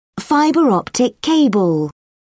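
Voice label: "fibre optic cable", English, British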